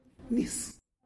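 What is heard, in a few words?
"water", Tlacolula Zapotec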